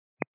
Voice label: 122.925 MHz; scanner radio